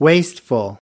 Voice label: "wasteful", us